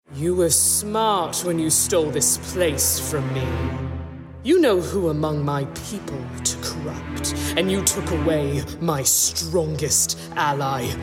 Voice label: ANIMATION CHARACTER